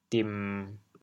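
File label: "dim1", Teochew, chaoyang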